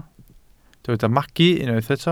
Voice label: "magu", Welsh